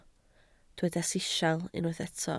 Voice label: "sisial", Welsh